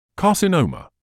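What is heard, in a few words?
"carcinoma", British English; kɑːsɪˈnəʊ.mə; kah-si-NOH-muh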